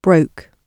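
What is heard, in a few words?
"broke", British English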